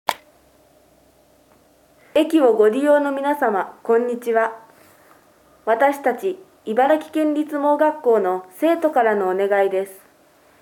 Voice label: Announce